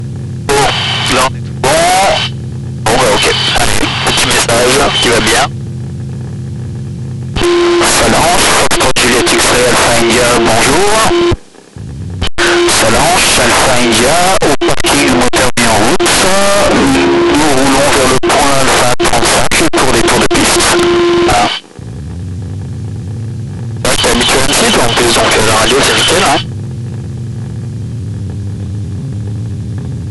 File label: Test record intercom